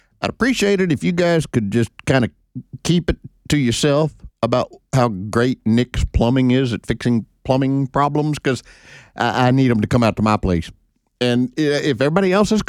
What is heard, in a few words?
RADIO AD; nicks plumbing radio ads